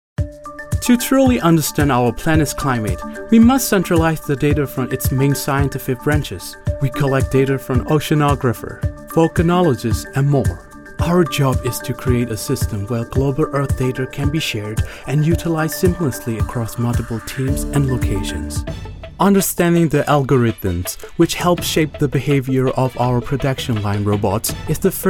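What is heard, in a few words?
Burmese, Accented English E-Learning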